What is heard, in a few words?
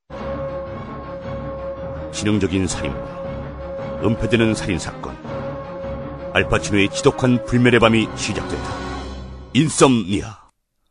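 Korean, male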